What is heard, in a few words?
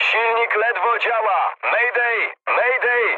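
damaged engine, mood med, Polish speech; War Thunder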